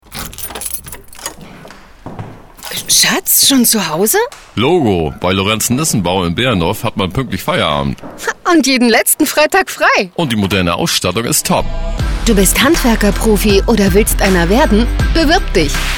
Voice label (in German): Mitarbeitersuche radiospot; lorenzen nissen bau gmbh